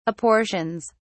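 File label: "apportions", British English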